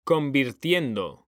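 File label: "CONVIRTIENDO", Spanish; mekanta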